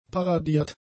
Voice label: "paradiert", German; adjectives

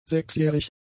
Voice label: "sechsjährig", German; adjectives

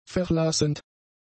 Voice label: "verlassend", German; adjectives

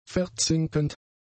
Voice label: "verzinkend", German; adjectives

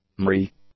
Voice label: "miry", English; adjectives

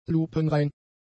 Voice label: "lupenrein", German; adjectives

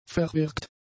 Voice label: "verwirkt", German; adjectives

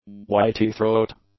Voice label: "Whitethroat", English; nouns

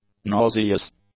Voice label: "nauseous", english; adjectives

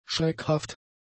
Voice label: "schreckhaft", German; adjectives

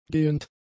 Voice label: "gehend", German; adjectives

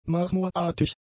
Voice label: "marmorartig", German; adjectives

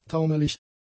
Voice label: "taumelig", German; adjectives

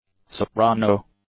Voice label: "soprano", English; nouns

